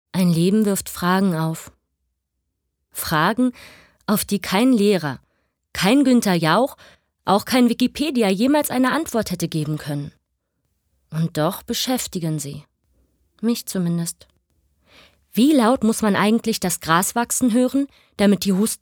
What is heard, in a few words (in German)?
Schauspiel Variabel